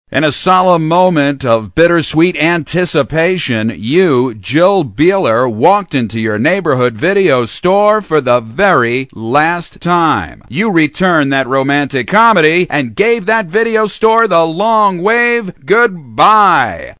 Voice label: ANNOUNCER SPORTS-LIKE, cartoon; animation